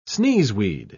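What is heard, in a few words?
"sneezeweed", British English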